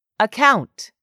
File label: "account", English; 3000 words